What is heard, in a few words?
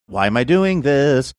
singsongy